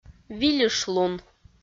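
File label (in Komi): "вильышлун", Komi, female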